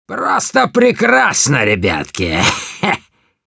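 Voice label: cheers, russian; Demoman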